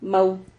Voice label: "mau", Silabas

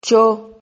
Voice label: "cho", Silabas

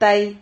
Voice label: "tahi", Silabas

